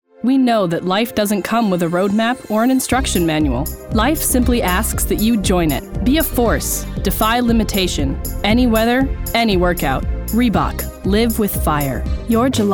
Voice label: commercials